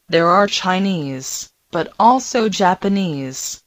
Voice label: Mandarin Conversation